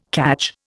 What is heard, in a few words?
"cadge", Indian